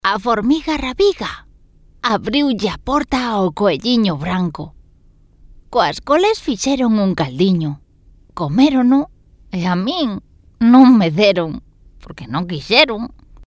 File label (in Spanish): interpretación gallego